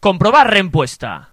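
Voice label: check answer, Chinese; gcompris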